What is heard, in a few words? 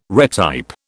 "retype", British